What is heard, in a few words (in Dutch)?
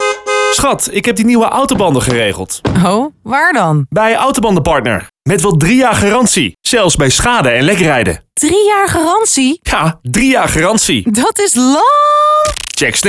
HOOFDCOMMERCIAL AUTOBANDENPARTNER